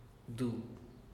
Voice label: "du" 'hilo'

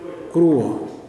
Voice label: "white", Kuman

